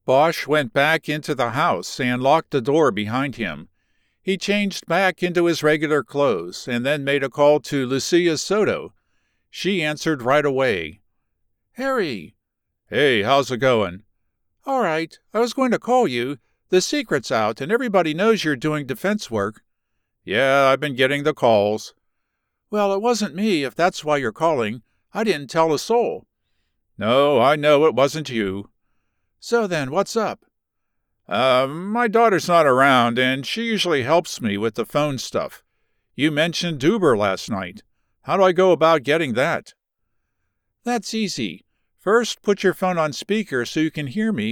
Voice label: Fiction 3rd person male female dialogue, audiobooks